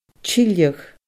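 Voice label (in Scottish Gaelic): "tilleadh", Scottish Gaelic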